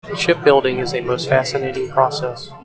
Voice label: Enhanced Speech Babble Noise